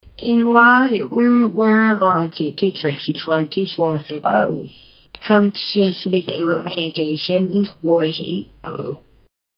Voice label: radio voice saying help